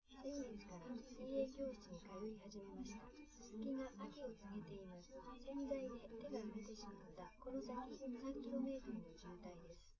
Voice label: female4 liverec 130ms 1m sim

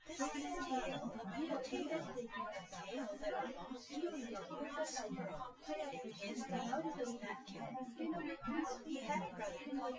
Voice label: female synth conv 250ms 5cm sim

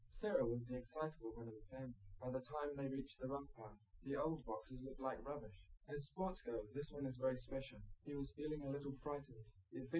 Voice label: male liverec

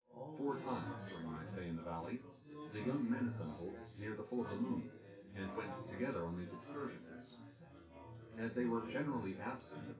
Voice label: male synth conv

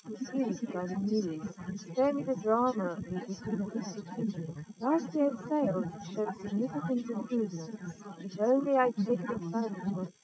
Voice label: female, 130ms, 50cm; SiSEC11; underdetermined

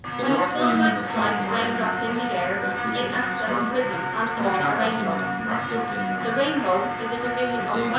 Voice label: room 4 sources 4 mics mix

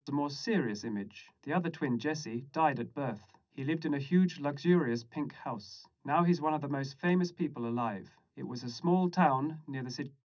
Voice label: male 130ms 50cm; SiSEC11; underdetermined

